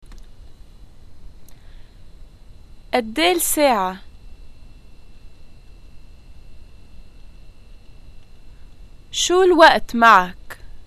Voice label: time what time is it, arabic levantine

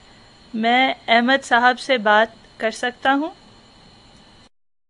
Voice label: Phone male

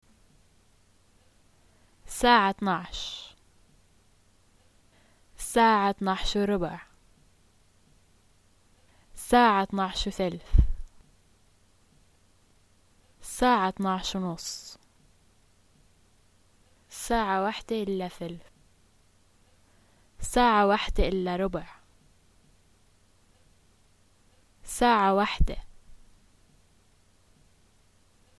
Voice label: time, arabic levantine

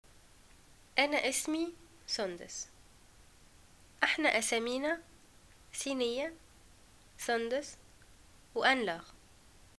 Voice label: name is, arabic

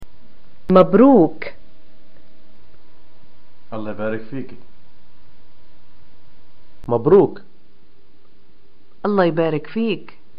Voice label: greeting new things, arabic

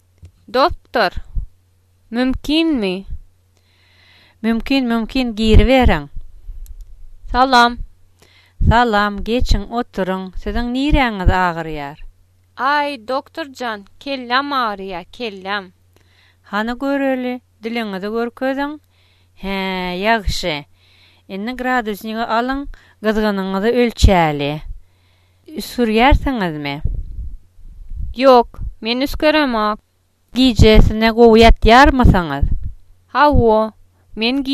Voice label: dialogue doctor, turkmen

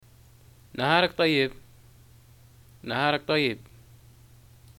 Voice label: greeting morning, arabic

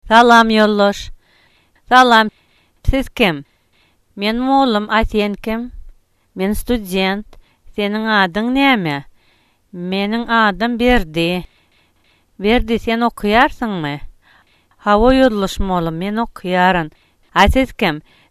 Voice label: dialogue, turkmen

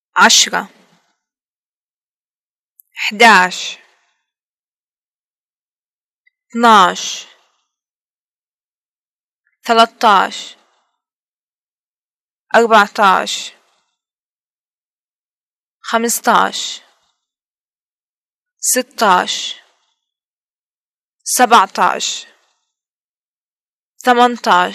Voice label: number 10-20, arabic levantine

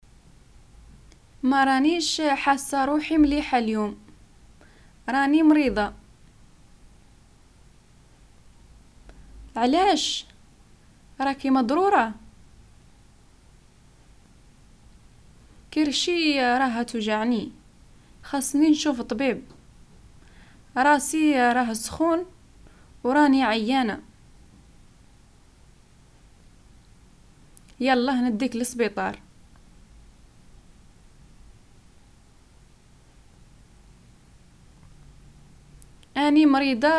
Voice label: Algerian Arabic dialogue emergency

